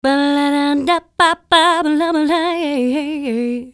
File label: voice Unprocessed